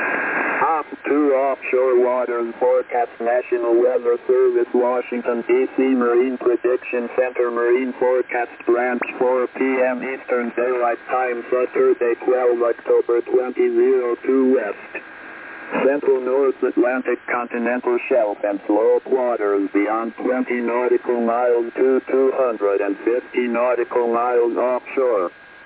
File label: USCG Automated Weather; Automated weather service broadcast